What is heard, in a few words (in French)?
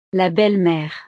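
"la belle mere", French; familia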